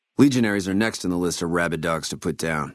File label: maleadult greeting, dialogue; Fnv